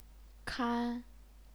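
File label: "kan", Chinese, first tone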